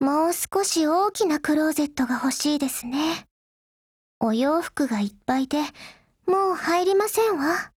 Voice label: DIALOGUE, JP